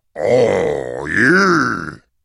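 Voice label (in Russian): zvuki golosa peshchernogo cheloveka, caveman voice sounds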